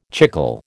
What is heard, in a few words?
"chicle", American